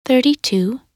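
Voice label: "32", English; minute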